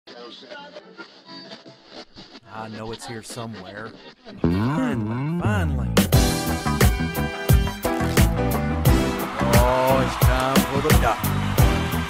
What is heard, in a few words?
Spot